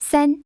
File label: chinese count 3; samsung YP-U5; Audio User Interface